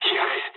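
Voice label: Japanese attack position mood low; War Thunder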